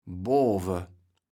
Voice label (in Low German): "boave", kaevels platt